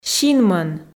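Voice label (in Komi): "синмӧн", Komi, female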